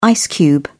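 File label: "ice cube", British English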